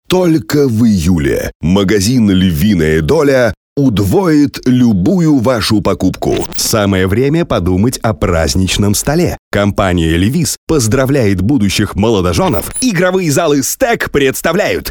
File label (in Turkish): Rusca Erkek, seslendirme; erkek sesler